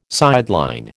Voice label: "sideline", American